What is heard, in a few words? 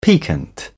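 "piquant", British English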